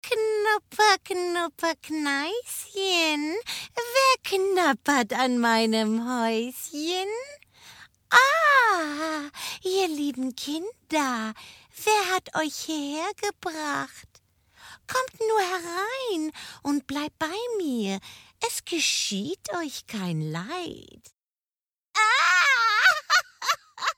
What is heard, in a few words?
german animation